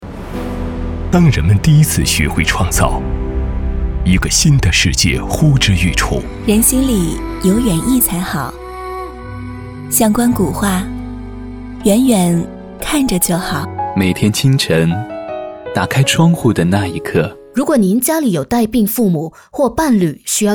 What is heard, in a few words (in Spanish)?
CHINO